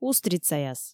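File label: "устрицаяс" Komi, female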